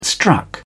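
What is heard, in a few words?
"struck", British English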